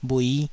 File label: "bhui5", taiwanese Syllables